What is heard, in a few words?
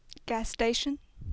Plantronics Headset Quiet Gas station